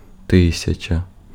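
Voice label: Ukrainian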